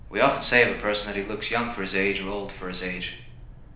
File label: room speech 8kHz